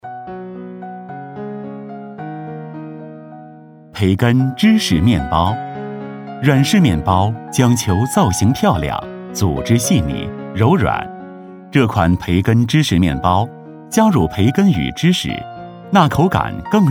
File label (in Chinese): 男, 课件; 培根芝士面包